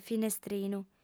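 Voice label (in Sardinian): "finestrinu", female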